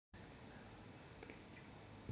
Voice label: voice message